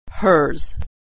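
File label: "hers", American English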